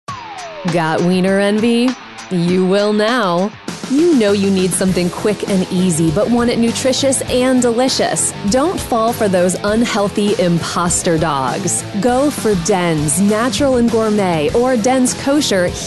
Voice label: Edgy

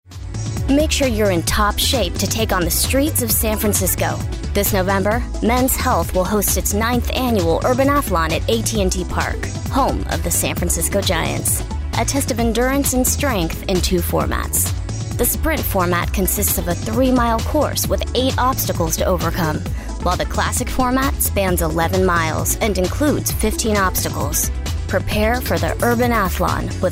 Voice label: On Hold

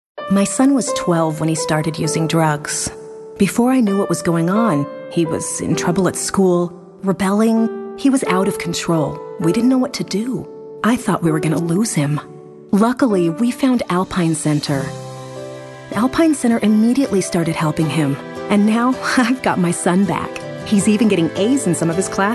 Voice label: Warm Caring

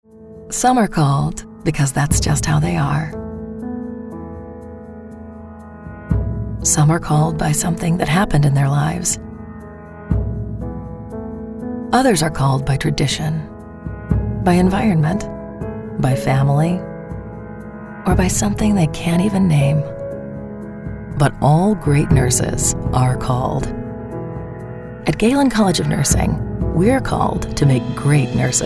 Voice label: Inspirational

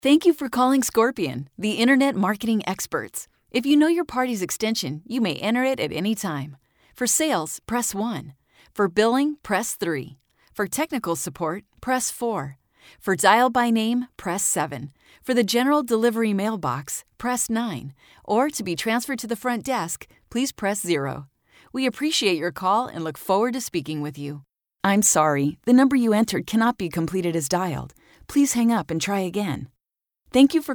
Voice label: On Hold

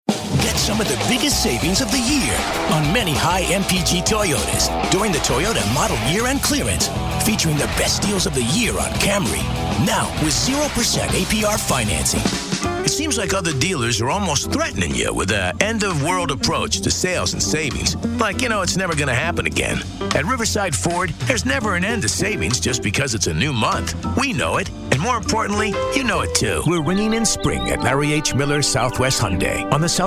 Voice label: Hard Sell

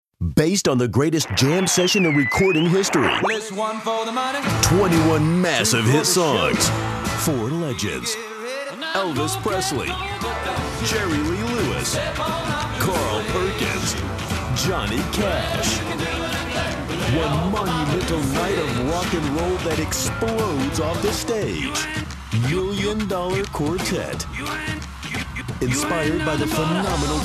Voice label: Concert Promo

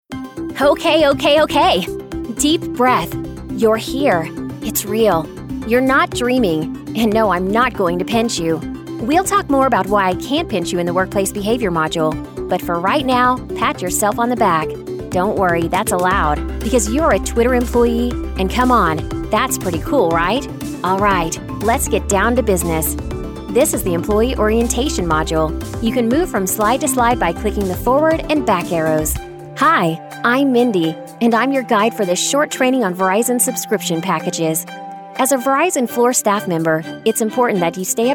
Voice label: eLearning

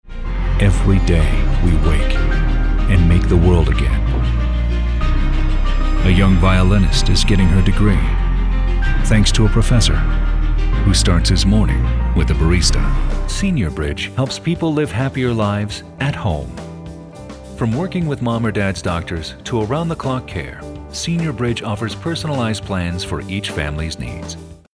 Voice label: Inspirational

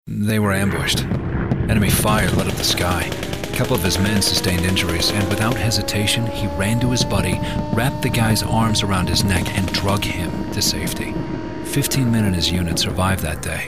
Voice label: Conversational

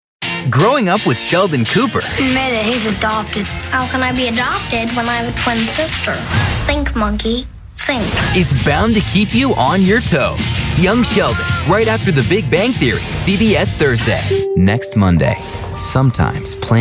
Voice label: TV Promo

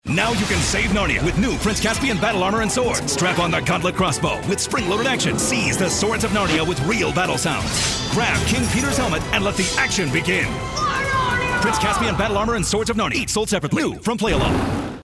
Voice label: Kid Friendly

